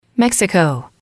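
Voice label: "Mexico", English, female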